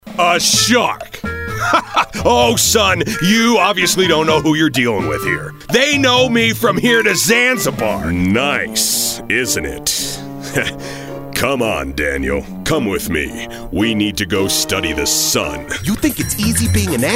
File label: animation